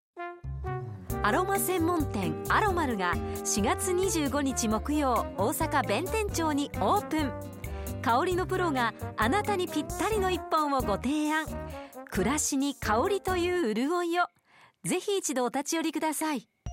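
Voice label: cm, ラジオ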